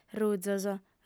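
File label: "ruzos", Sardinian female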